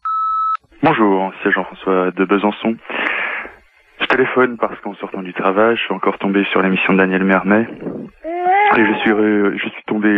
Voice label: répondeur; Derapage Alexandre Adler

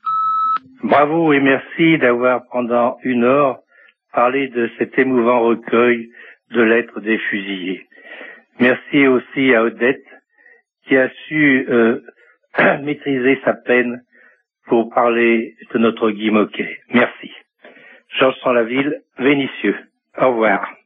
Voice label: Lettres fusilles repondeur

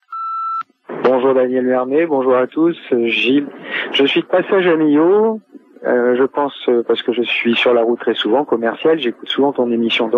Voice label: Mc Do Millau repondeur

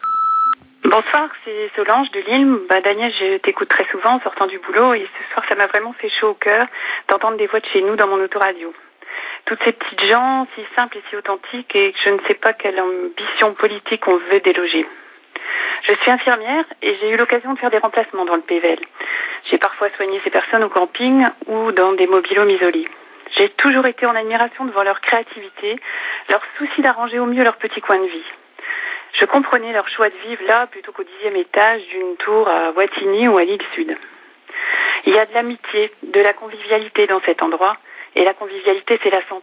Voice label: repondeur; Camping en danger

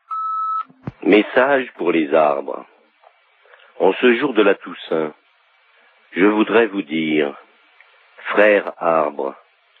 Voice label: Feuilles mortes repondeur

